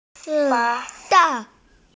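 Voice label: "zi3dan4", Mandarin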